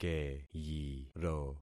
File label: "keyiro", concat syllables; Affixing experiment